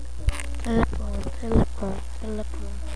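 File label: "telephone", spoken word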